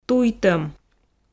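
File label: "туйтӧм", Komi, female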